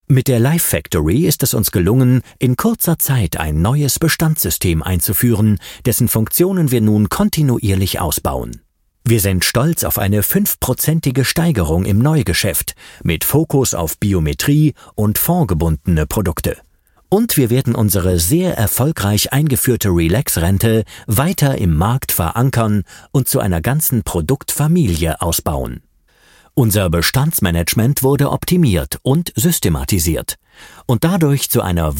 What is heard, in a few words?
Sales Verkaufsvideo